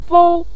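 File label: "fou", Mandarin Chinese, neutral tone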